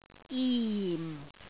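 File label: "jim4", Cantonese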